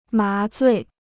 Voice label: "麻醉", Chinese

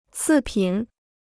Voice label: "次品", Chinese

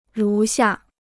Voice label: "如下", Chinese

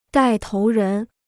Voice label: "带头人", Chinese

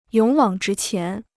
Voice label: "勇往直前", Chinese

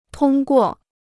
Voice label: "通过", Chinese

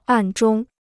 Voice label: "暗中", Chinese

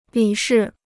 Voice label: "理事", Chinese

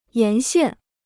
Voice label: "沿线", Chinese

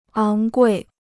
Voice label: "昂贵", Chinese

